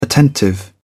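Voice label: "attentive", English, British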